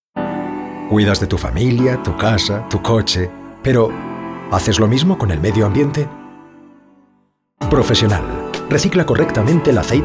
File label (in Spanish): Cuña